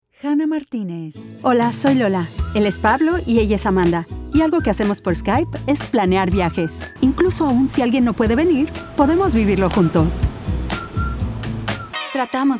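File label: spanish voice over; Foreign Languages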